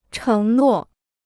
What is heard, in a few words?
"承诺", Chinese